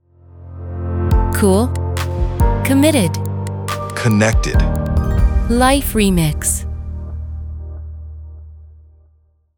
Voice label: sweeper